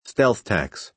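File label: "stealth tax", American English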